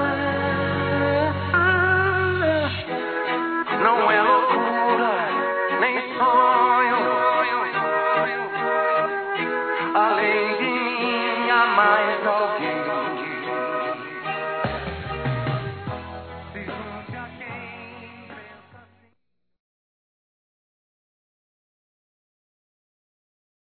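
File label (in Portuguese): Natal para pai feminino, Telemensagens; Natal e Ano novo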